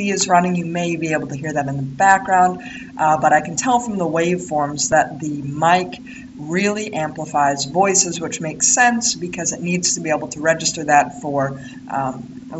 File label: Creative Nova microphone